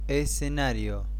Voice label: Stage spanish